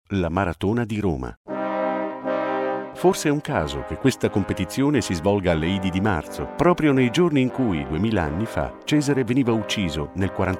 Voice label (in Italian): ITALIANO normale